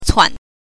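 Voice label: "cuan", Mandarin Chinese pinyin, neutral tone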